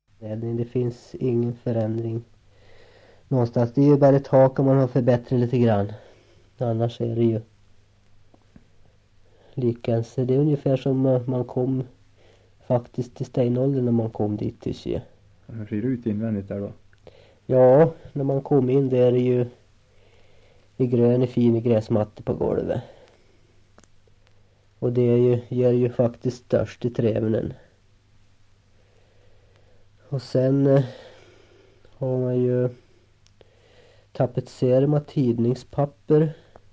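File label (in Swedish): Hackås Jämtland, dialekter; Folkminnen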